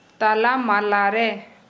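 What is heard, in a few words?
Santali female center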